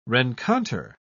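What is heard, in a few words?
"rencontre", English, British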